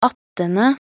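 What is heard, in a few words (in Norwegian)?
"attende", Norwegian; talkbook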